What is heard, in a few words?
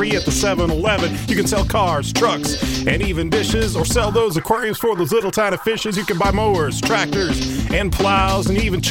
radio promo; radio exchange